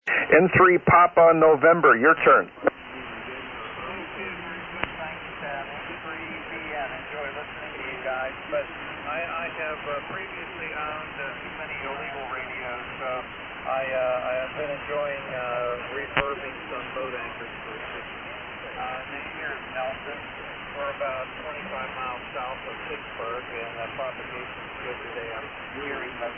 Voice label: Yaesu FT-901DM EV-654 Mic & 811 Amp; Washington, PA.